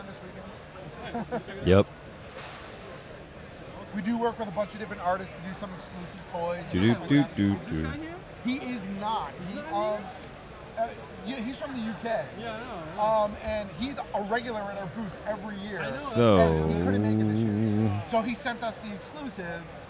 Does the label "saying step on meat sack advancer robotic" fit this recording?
No